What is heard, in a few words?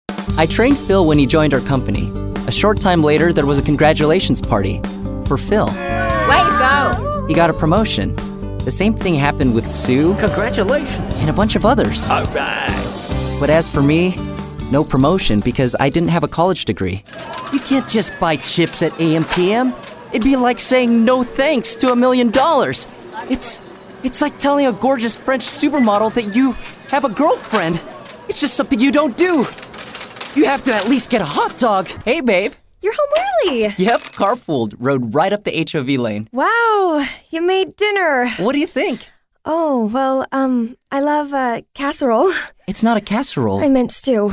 Commercial